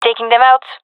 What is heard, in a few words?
attacking, fem; gendermerie fem epic